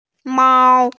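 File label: "mao1", Mandarin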